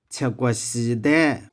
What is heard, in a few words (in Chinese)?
"chih4kueh4shi1dae6", 玉環